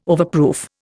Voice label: "overproof", Indian

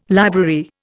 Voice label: "library", Indian

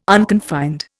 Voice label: "unconfined", Indian

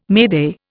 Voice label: "mayday", Indian

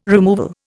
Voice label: "removal", Indian

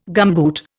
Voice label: "gumboot", Indian

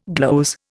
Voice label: "gloze", Indian

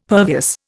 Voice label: "pervious", Indian; Dictionary

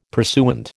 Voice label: "pursuant", American

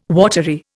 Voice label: "watery", Indian

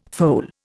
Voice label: "foal", Indian

